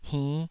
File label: "hinn5", taiwanese syllable